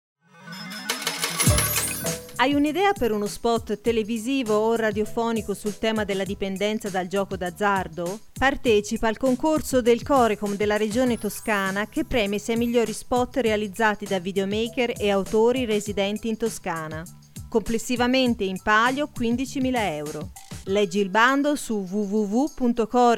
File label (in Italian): SPOT RADIOFONICO; TOSCANA IN SPOT 2017; CORECOM